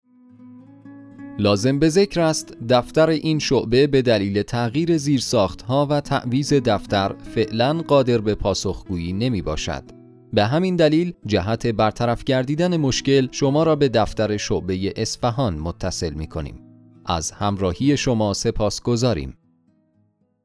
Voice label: Narration M